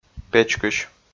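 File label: "печкысь", Komi, male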